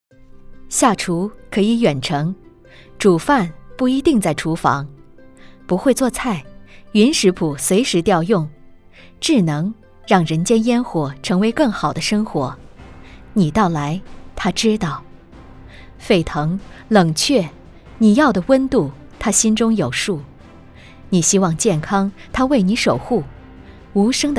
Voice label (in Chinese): 广告自然质感; 美的